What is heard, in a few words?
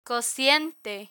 "cociente", Spanish